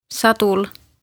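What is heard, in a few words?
"Sadul", Estonian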